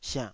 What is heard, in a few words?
"siannh3", taiwanese Syllables